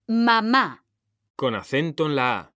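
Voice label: "mamá", Spanish, female; mekanta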